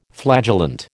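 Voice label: "flagellant", American English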